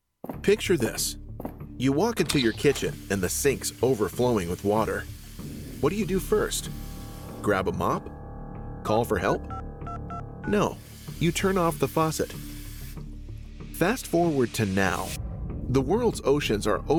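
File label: Explainer, Male Voice; 4Ocean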